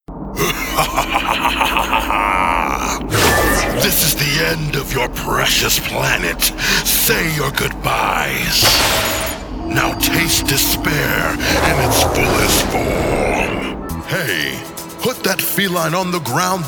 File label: Character